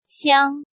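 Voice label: "Xiāng", Chinese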